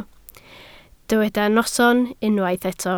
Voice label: "noson" Welsh

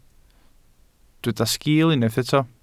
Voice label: "sgil", Welsh

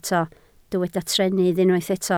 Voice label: "trennydd", Welsh

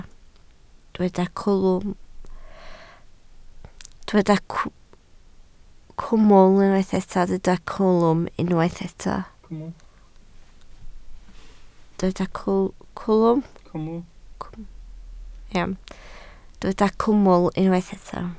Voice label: "cwmwl", Welsh

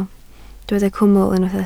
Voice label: "cwmwl", Welsh

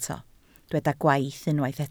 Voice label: "gwaith", Welsh

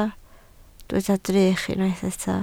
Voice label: "drych", Welsh

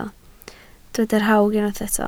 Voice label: "rhawg", Welsh

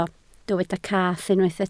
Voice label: "cath", Welsh

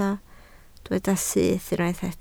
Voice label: "syth", Welsh

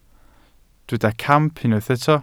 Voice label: "camp", Welsh

